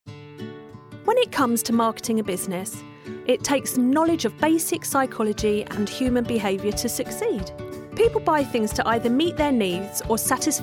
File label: Corporate Female English (British)